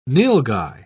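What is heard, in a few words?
"nilgai", British English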